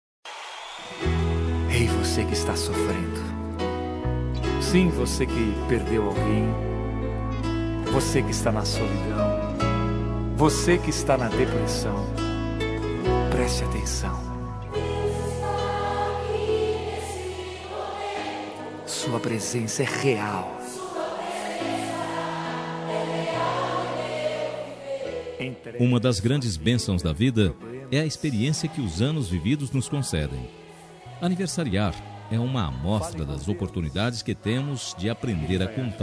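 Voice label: male; religioso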